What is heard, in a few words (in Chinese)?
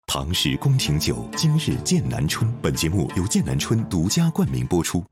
口播广告; 登场了敦煌口播广告